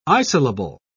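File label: "isolable", British English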